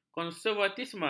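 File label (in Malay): "konservatisme", Malay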